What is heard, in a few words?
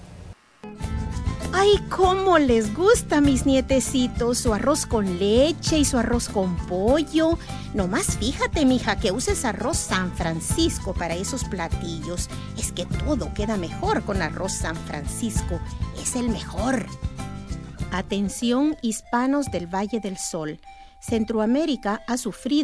Commercial, Female voices